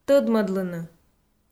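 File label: "тӧдмӧдлыны", Komi, female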